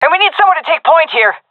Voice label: request leader, vo fem; agents; Source Model Packs; Counter Strike Model Pack; CS2